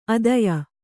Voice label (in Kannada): "ಆದೇಯ", kannada